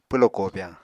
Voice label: "pwlo kobyañ", Apatani